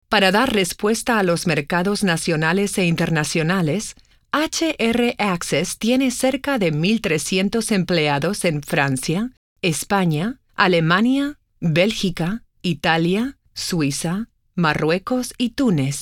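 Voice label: Spanish Neutral, voice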